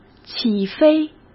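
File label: "起飞", Mandarin Chinese; hsk; chinese-flashcards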